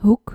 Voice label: "hoek", Dutch

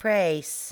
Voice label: "prijs", english

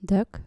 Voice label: "duck", dutch

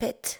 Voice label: "pit", english

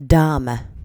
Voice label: "dame" english

